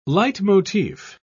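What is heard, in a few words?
"leitmotif", British English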